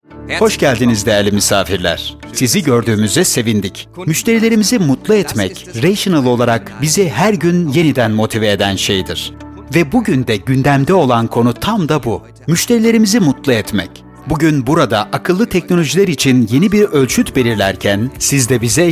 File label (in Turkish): dublaj; voiceover for commercials